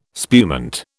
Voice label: "spumante", British